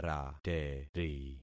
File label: "rateri", concat syllables; Affixing experiment